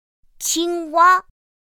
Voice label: "qīngwā", pinyin; pinyin2; pinyinji

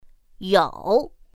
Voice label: "yǒu", pinyin; pinyin2; pinyinji

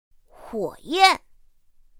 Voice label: "huǒyàn", pinyin; pinyin2; pinyinji

